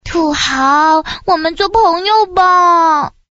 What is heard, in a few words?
quick chat voice, girl